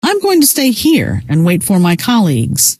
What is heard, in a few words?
going to stay, scientist female